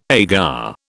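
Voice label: "agar", British English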